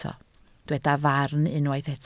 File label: "farn", Welsh